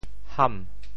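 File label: "ham2", Teochew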